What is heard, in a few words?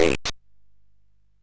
MOS6581R3, Electronic Speech Systems